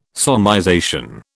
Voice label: "solmization", British